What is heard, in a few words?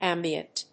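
"ambient", English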